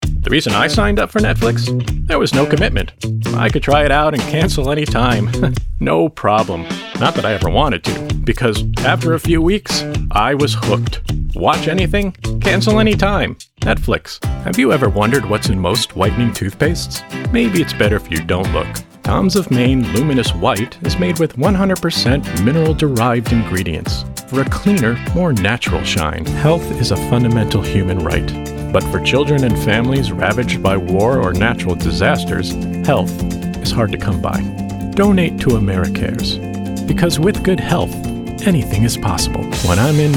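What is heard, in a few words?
Commercial, male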